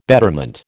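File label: "betterment", American English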